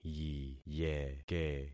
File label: "yiyeke", concat syllables; Affixing experiment